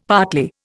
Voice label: "partly", Indian; Dictionary